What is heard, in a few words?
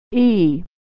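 "e", American English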